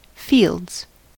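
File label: "fields", US English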